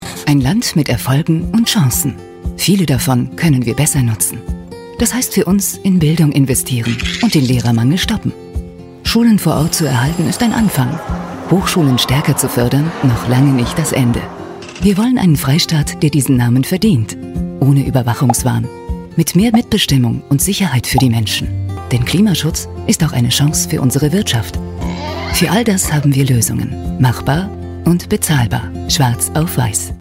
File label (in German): Erklärfilm; Die Grünen